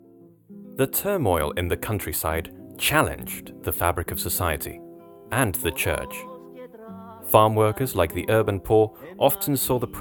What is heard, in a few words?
English